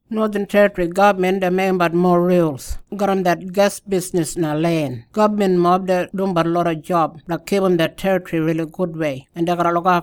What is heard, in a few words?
kriol; onshore gas message